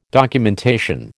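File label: "documentation", American English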